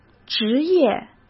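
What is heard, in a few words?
"职业", Mandarin Chinese; chinese flashcards; hsk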